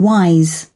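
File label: "wise", British English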